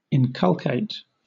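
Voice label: "inculcate", British English; pronunciations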